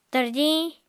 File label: "tarjiñ", apatani